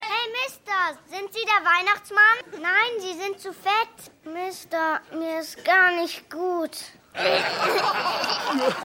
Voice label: Junge

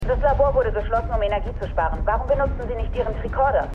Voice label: Offizierin, Stimmproben; Star Trek Picard

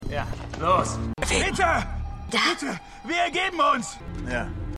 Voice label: Soldat Mann Wache, Stimmproben; McCreary; The 100

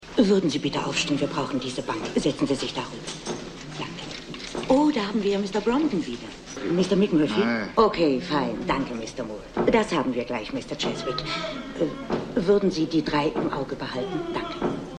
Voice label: Aeltere Schwester; Einer Flog Ueber Das Kuckucksnest

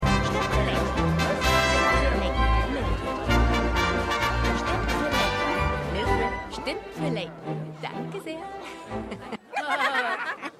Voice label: Kampagnenhelferin Gegackere; Schmigadoon

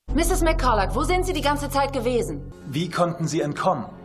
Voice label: Reporterin Reporter; The Flash

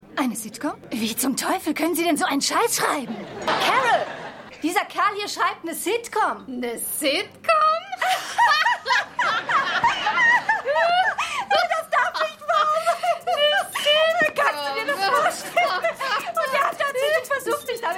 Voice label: Frau In Bar, Stimmproben; Carol; Seinfeld

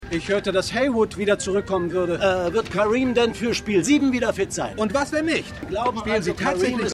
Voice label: Sportreporter; Winning Time

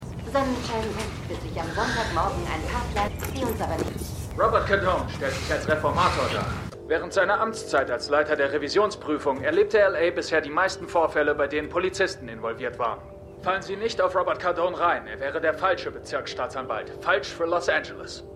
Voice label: TV Sprecherin Wahlwerbesprecher; The Lincoln Lawyer

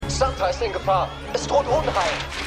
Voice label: Alarmstimme; Santa Clause

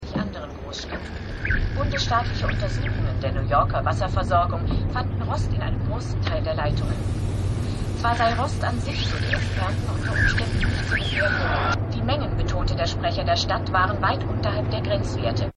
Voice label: Nachrichtensprecherin; Rescue Me

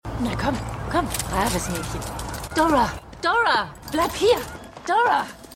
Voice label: Frau Mit Hund; Suspicion

